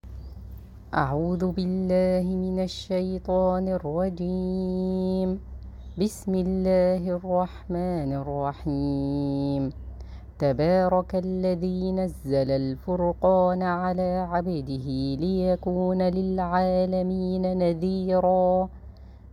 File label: Recitation Audio